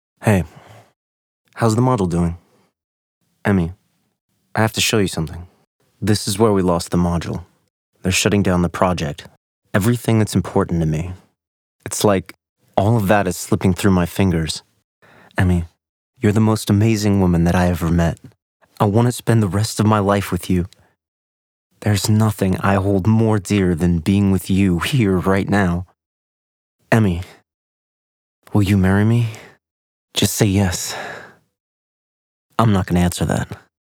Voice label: movie, US